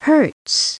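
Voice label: "hurts", English; SoftKey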